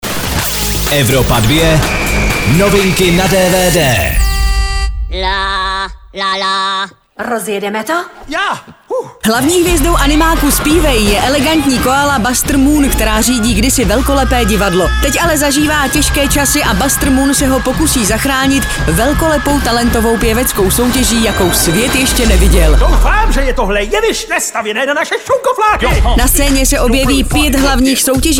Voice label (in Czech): dvd news; evropa2; zpivej